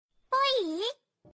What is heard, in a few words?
Anime girl boi